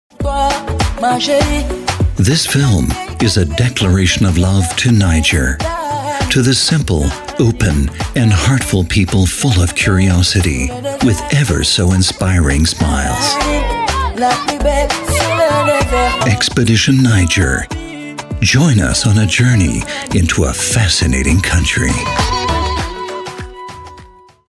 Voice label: doku niger engl

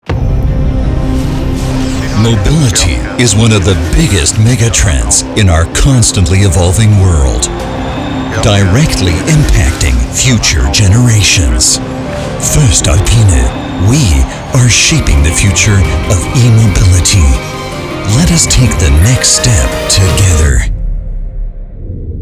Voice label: trailer eng; voestalpine

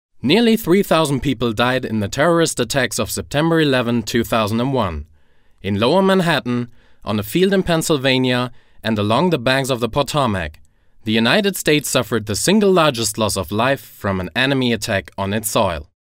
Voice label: American English

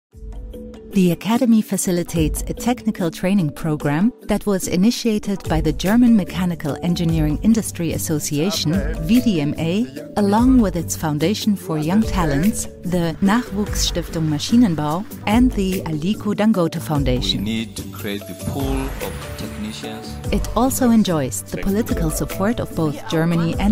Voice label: en training programme; vdma

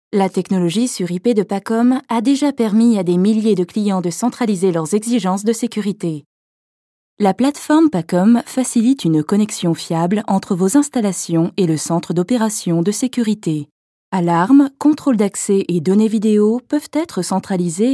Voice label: elearning

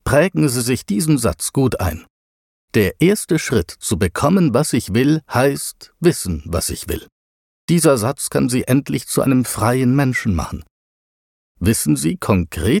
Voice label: audiobook ratgeber

